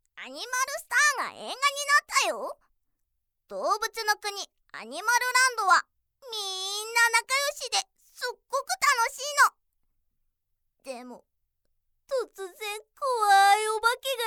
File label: narration